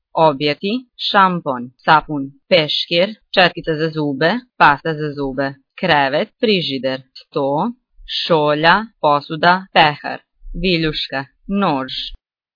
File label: serbian objects